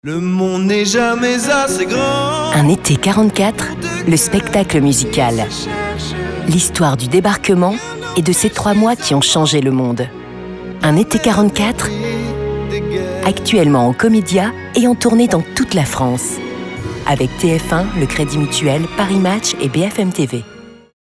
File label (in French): Pub radio; ETE 44